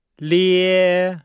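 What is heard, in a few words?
"lie", Chinese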